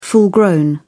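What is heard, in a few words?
"full-grown", British English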